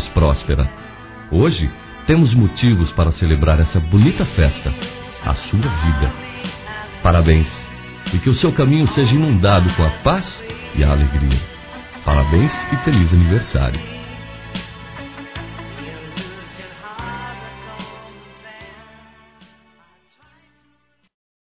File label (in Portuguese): Pessoa Especial Masculino, Telemensagens, Aniversários; Aniversários diversos